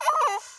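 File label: voice unbalance; Poteko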